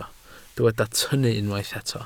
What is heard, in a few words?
"tynnu", Welsh